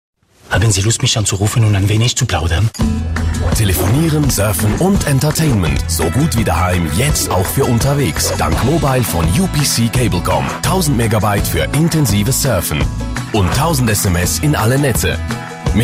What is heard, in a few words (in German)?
Akzent Schweizerdeutsch; Fremdtake